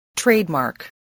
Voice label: "trademark", voice

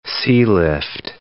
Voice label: "sealift", English

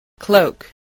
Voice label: "cloak", voice

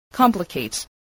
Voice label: "complicate", english

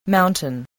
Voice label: "mountain", voice, spelling

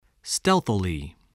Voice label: "stealthily", voice

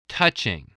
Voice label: "touching", voice

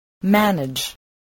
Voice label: "manage", spelling voice

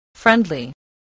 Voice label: "friendly", English voice, spelling

